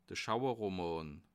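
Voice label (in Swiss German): "schauerroman", Bas Rhin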